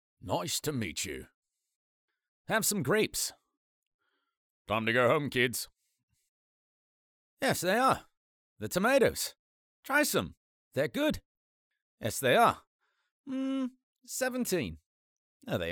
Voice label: 多角色模仿-多种口音

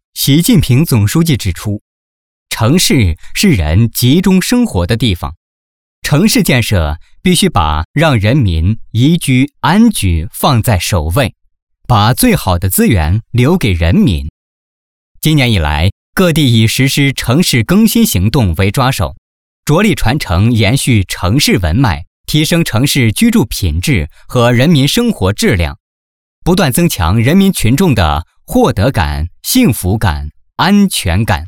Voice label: 男 新闻

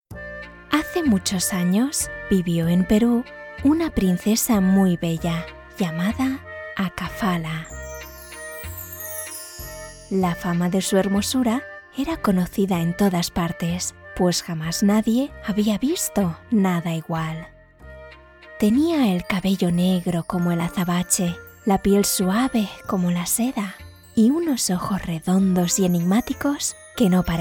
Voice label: 西班牙语 女 广告

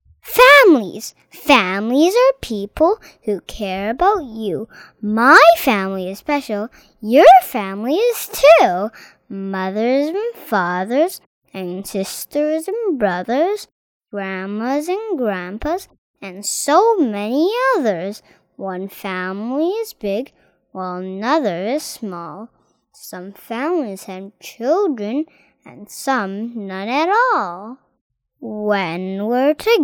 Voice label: 外籍童声男 朗诵

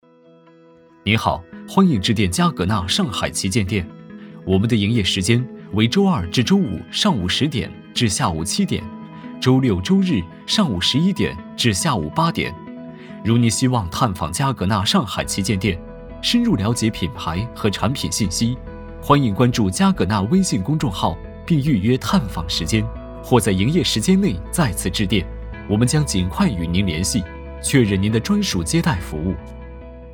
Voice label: 男 彩铃; 嘉格纳

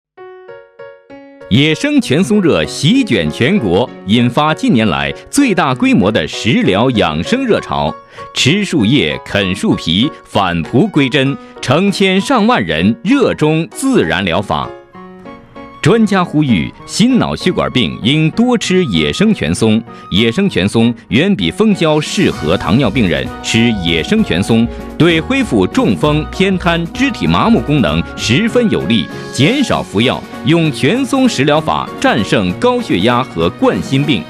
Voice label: 男 广告, 国语男声配音; 抒情; 全松茶

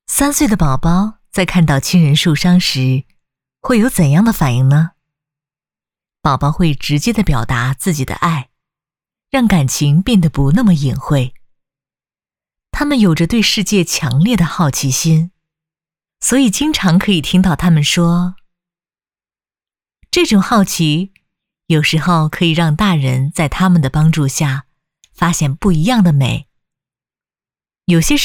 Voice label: 女 温情讲解 三岁宝宝

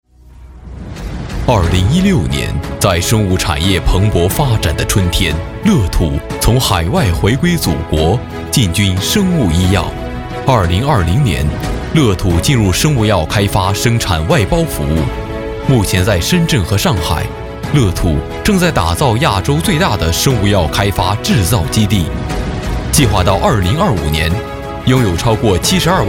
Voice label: 男 宣传片 企业医药

